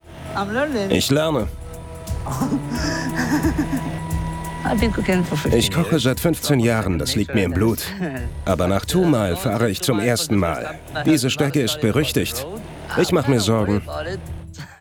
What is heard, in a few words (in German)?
Voice Over, maenner; Jadefieber St.5